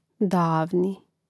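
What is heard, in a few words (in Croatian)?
"davni", Hrvatski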